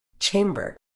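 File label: "chamber", British English; ˈtʃeɪm.bə; CHAYM-buh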